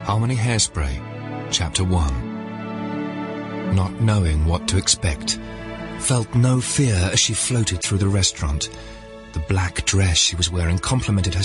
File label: Hairspray (RP); Harmony